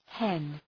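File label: "hen", English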